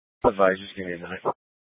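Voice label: Incident Tac 1, Scanner audio recording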